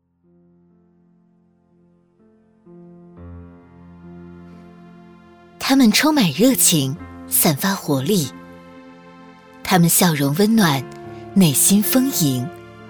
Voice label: 女 专题 享受人生自然舒缓

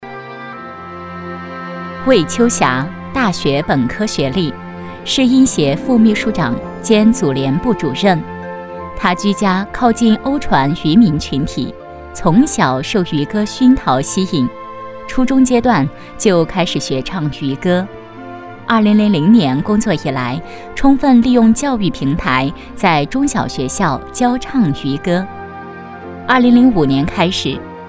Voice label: 女 专题 人物旁述个人介绍

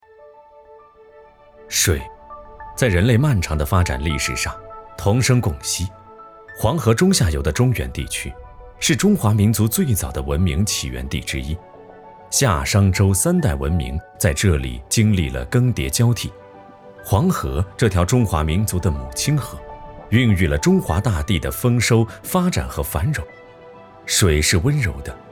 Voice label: 男 旁白叙述 水文站

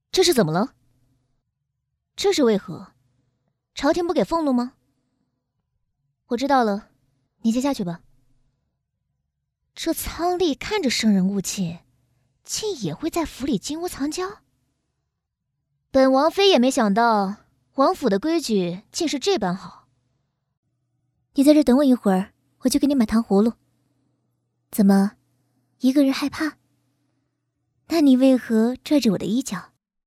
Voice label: 女, 模仿 少御

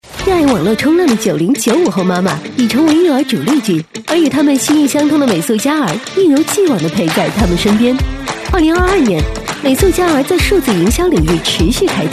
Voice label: 女 广告 宝妈时尚; 美素佳儿

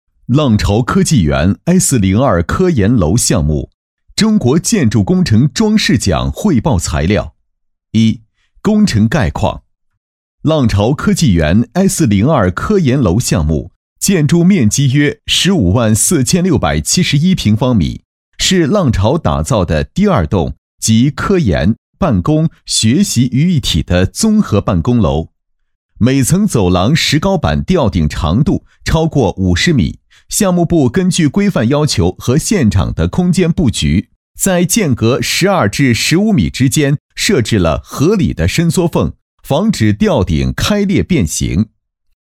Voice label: 男 多媒体解说

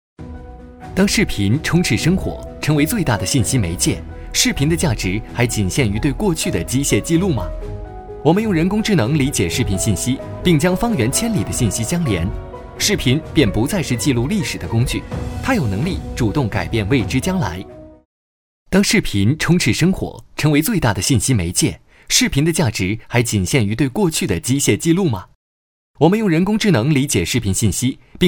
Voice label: 男,旁白解说; 新视界

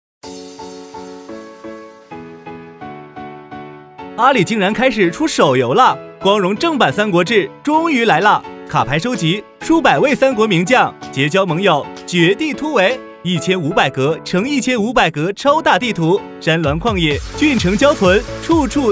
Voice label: 男 广告; 三国志手游

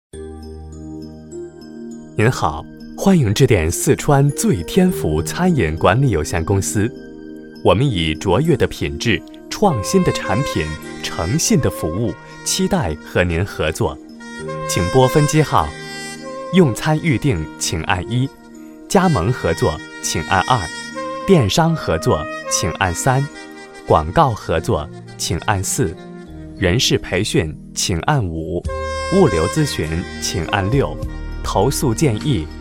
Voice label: 男 中文 彩铃类 企业彩铃

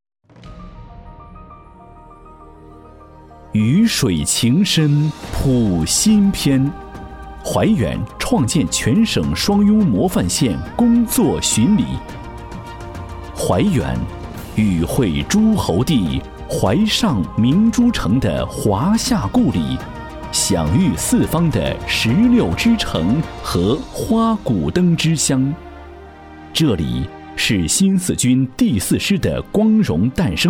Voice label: 男 宣传片 浑厚大气; 谱写雨水情深

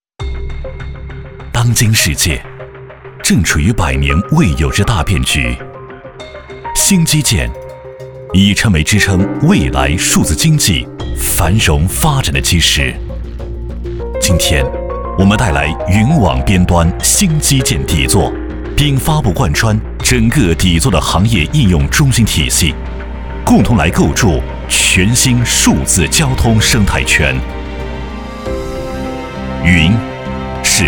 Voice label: 男 科技; 智慧的数字

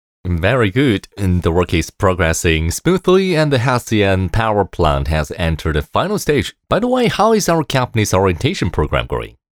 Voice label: 英文角色男声

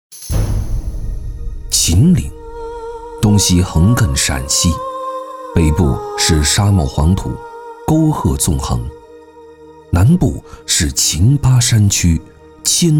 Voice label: 男 专题 厚重感纪录片

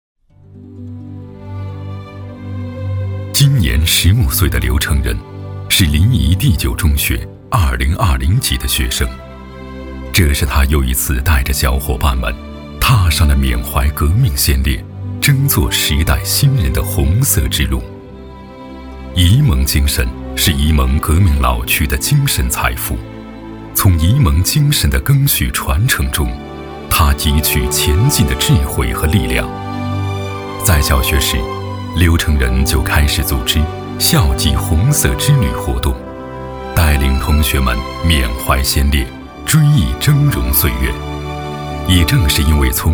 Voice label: 男 专题 人物 片 感情讲述

